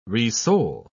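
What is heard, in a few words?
"resole", British English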